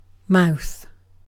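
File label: "mouth", British English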